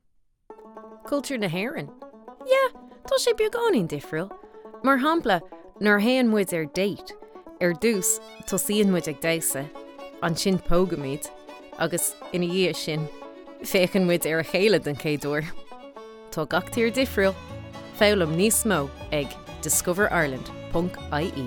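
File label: voice over irish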